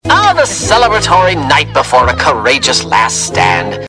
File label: announcer; hazbin hotel alastor announcer pack for qol lock